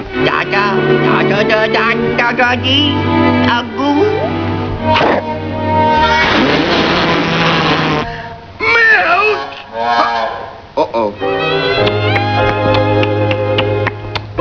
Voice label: babytalk; Tom en Jerry